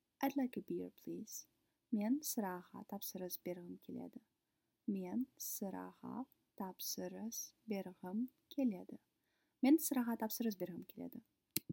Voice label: Kazakh Id like a beer please